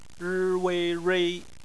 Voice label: "rui", Chinese phonetics